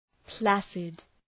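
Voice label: "placid", English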